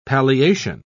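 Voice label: "palliation", British English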